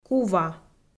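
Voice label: "кува", Komi, female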